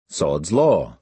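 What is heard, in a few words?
"sod's law", American English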